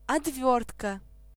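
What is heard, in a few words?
screwdriver description Belarusian; tuxpaint-stamps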